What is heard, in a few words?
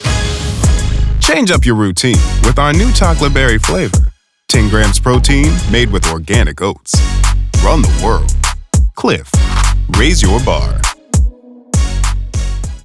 Athletic, Cool, Modern, Powerful; Clif Bar Run The World